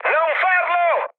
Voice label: bomb failure mood high, speech it; War Thunder

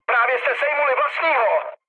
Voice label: player killed ally, speech cze, mood low

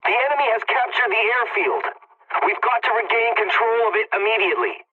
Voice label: pilot capture ground enemy, english; War Thunder

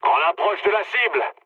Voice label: near waypoint mood low, speech fr; War Thunder

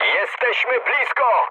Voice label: near waypoint mood high, speech pol; War Thunder

